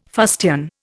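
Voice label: "fustian", Indian English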